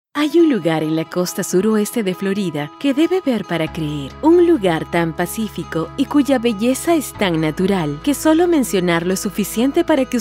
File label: narration spa